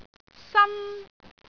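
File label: "san1", Cantonese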